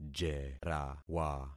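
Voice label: "jerawa", concatenated syllables; Affixing experiment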